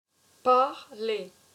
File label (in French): "Parler", french; j'ai par-lé